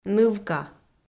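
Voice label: "нывка", Komi-Zyrian, female; audio dict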